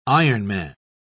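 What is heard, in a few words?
"ironman", British English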